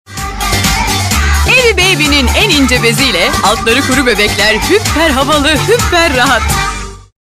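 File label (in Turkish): reklam, Turkish; eyv baby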